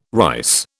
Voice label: "rice", British